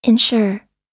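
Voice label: "insure", American English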